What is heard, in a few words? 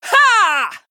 Female Blood Elf Attack